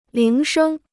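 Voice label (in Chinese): "铃声" Mandarin Chinese; líng shēng; ring; ringtone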